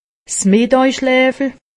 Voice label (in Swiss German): "middaaschleefel", alsace; medecine